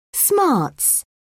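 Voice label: "smarts", English, British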